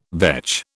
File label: "vetch", British